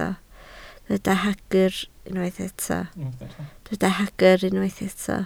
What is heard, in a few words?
"hagr", Welsh